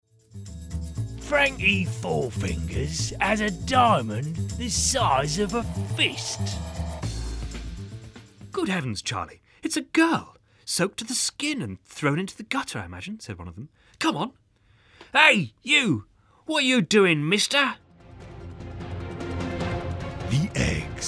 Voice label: character